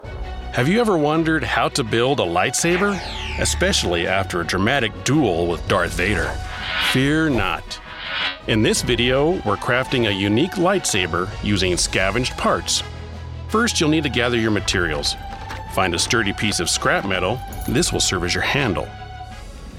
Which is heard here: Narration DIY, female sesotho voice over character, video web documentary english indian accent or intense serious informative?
Narration DIY